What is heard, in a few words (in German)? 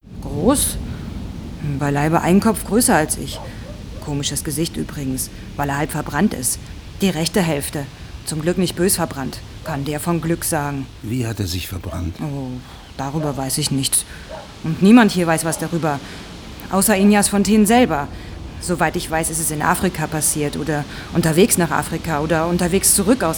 Hoerspiel